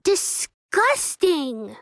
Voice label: disgusting; chikn nuggit

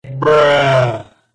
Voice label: bruh meme but its slowed down 2x

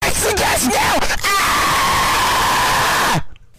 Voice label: official english dub; josuke vs kira

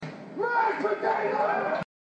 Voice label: spectator shouts mashed potatoes

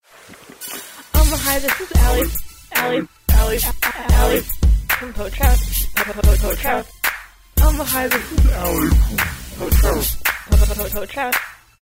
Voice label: voicemail

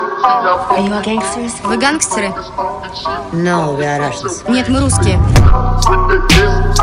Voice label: russian gangster

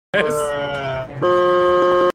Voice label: "bruh" brrrrr

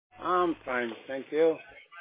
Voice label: covid male

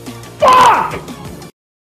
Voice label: screaming obscenities out the window

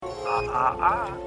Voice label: ahahah; dennis nedry

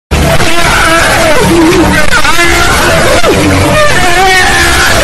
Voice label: plankton cringing

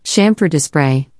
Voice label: female

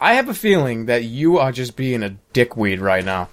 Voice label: jeers; scout

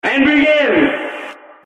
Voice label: narrator and begin; cuphead

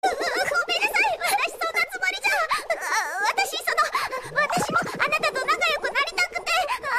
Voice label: anime babe

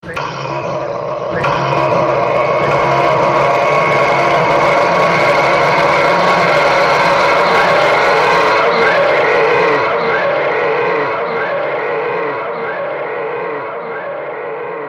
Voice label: reverb

